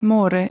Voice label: "more", Bosnian Croatian Serbian

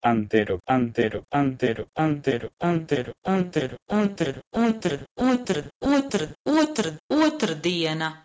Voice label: Latvian Otrdiena; PIE h2entero

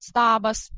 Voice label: "stabas", Lithuanian

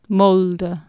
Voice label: "molde", Anglo Saxon

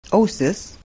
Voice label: "ausis", Lithuanian

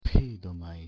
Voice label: "pheidomai" Ancient Greek; φείδομαι pheidomai

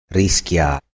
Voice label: "riskija", Proto Germanic

